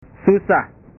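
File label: "susah", Indonesian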